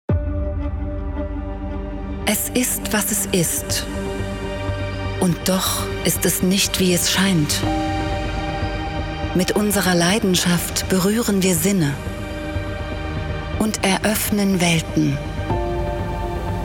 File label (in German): Werbespot, Sprecherin; Foodscape F2 | Werbespot